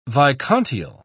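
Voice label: "vicontiel", British English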